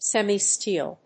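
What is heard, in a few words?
"semi-steel", English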